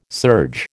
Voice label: "serge", American